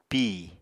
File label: "pìi", Apatani